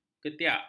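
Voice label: "ketiak", Malay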